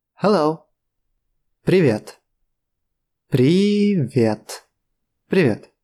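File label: Russian Hello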